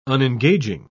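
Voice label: "unengaging", British English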